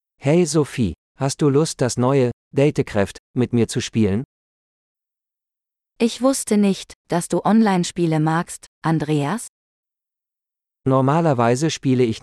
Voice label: Gaming - Dialogue; de-en